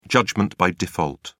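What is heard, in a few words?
"judgment by default", British English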